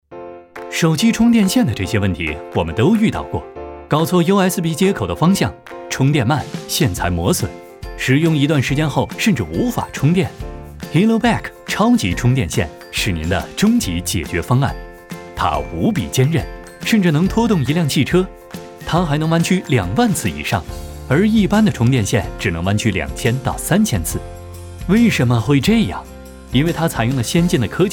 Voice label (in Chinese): 男 广告 年轻亲和轻松时尚 超级充电线